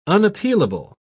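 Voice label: "unappealable", British English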